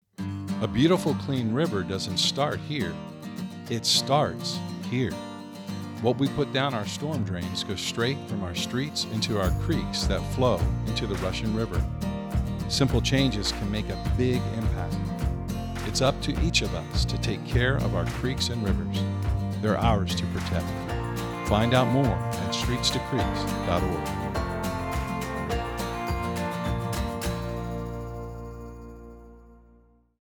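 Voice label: short form voice over only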